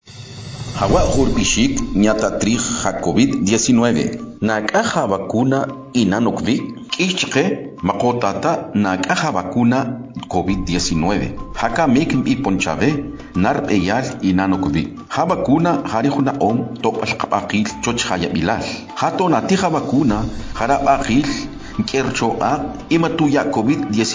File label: Spot Tzutujil